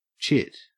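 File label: "chit", Australian English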